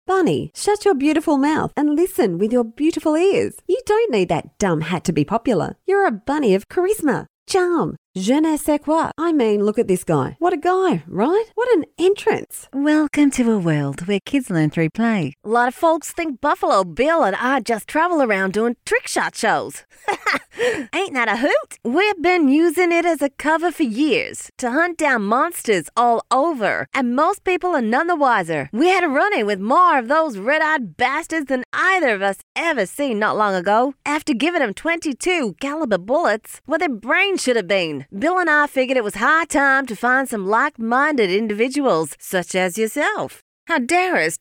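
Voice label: English Australian F Characters; accent of australia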